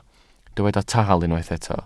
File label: "taal", Welsh